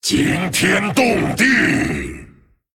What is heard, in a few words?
Male Dragon Well Played; ▶惊天动地！ ▶An earthshattering play!; zh; 称赞 Well Play; 风暴巨龙迦拉克隆